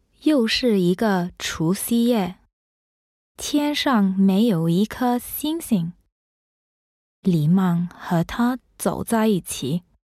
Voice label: mandarin